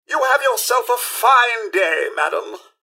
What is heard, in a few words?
robots dialog goodbye; mrhandy; npc